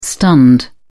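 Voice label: "stunned", English, uk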